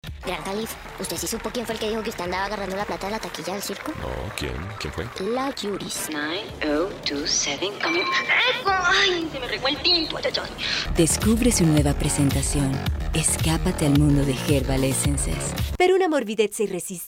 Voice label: spanish colombian commercial; Kolumbien; Spanisch (Kolumbien); Synchronsprecherin